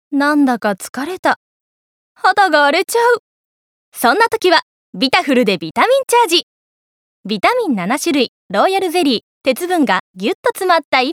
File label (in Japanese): ドリンクCM